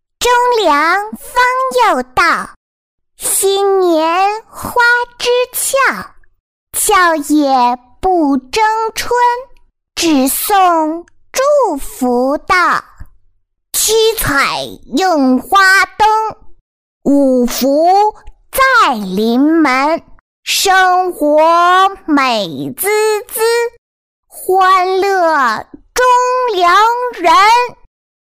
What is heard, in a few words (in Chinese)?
女 童声朗诵 小诗歌 男童女童; 中粮